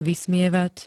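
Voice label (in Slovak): "vysmievať", Slovak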